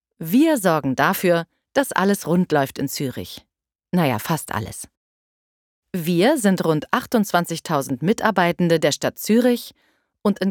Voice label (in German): erklaerfilm rassismus snippet; Sprecher in Berlin; Muttersprache Deutsch